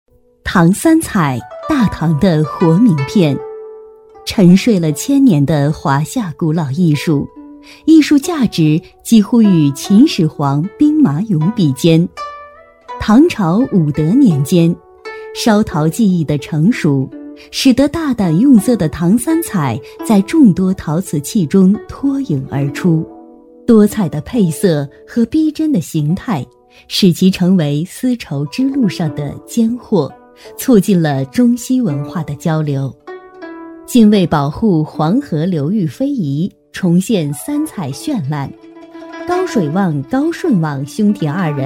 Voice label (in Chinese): 女, 纪录片, 陶瓷艺术, 大气古韵; 知性稳重; 成熟知性; 女31-纪录片《陶瓷艺术》大气古韵